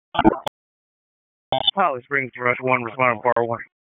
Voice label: FIRE OPS, p25; wake